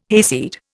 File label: "hayseed", Indian